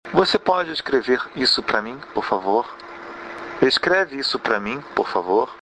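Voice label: please write it down, Brazilian Portuguese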